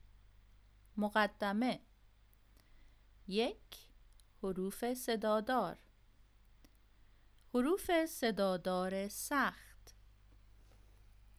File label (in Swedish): inledning, svenskabok